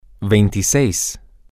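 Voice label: Spanish, twenty six, male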